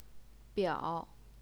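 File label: "biao", Mandarin Chinese, third tone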